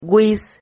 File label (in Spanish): "güis", Silabas